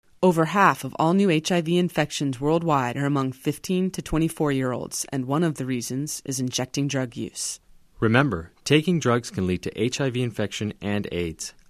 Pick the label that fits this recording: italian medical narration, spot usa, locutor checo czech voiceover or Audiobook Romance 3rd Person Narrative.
spot usa